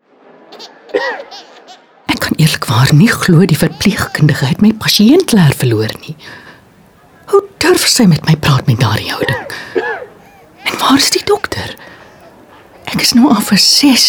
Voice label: radio ad afrikaans; complaints